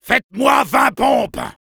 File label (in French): Soldier jeers, fr